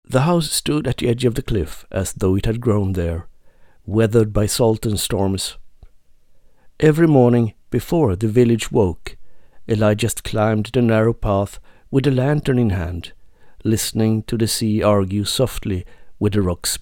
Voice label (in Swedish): Ljudbok engelska skönlitterär berättarröst